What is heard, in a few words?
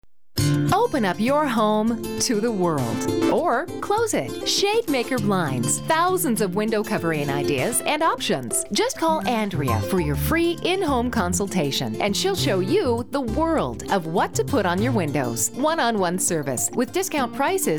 radio ad; regina spring home show